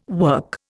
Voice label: "work", Indian